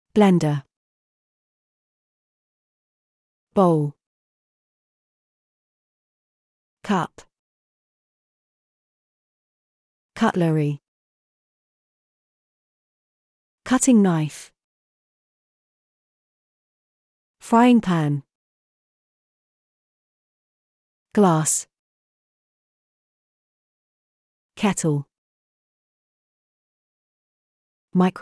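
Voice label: British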